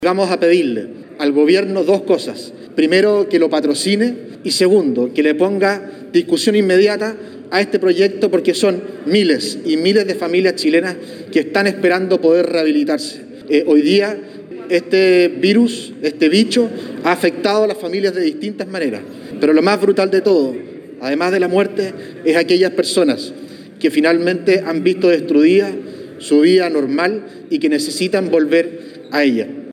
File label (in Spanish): cuña